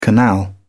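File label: "canal", British English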